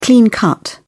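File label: "clean-cut", English, uk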